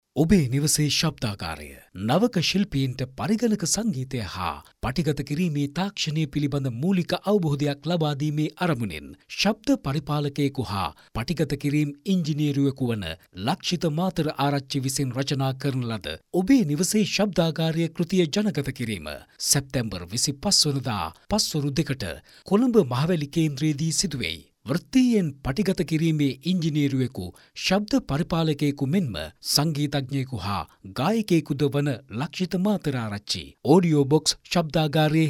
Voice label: MALE COMMERCIAL